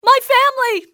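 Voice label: "my family", english, female; XBOX; civ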